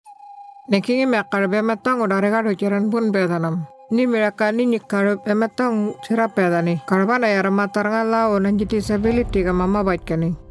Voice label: Murrinh Patha, radio; Carer Gateway